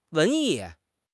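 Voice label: "文艺", Mandarin Chinese; HSK6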